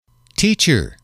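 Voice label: "teacher", English, male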